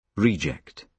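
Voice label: "reject", British English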